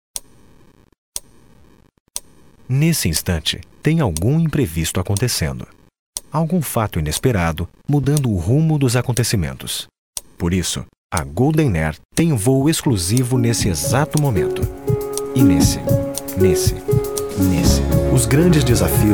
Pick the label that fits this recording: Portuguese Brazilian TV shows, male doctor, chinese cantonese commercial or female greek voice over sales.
Portuguese Brazilian TV shows